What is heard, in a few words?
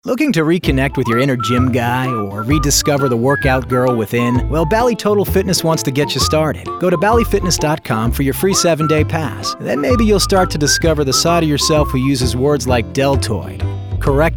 Conversational